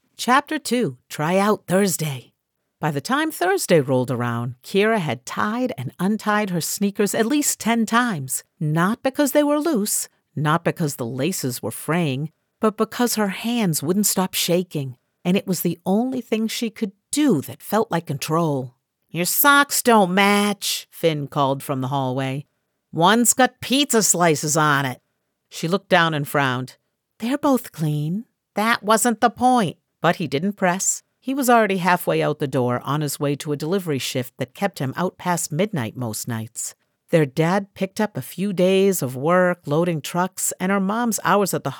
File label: Third Person Young Adult